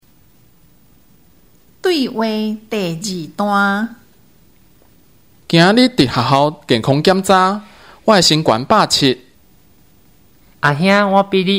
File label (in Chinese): 對話, 閩南語; 閩南語語言能力認證; 閩南語能力測驗題本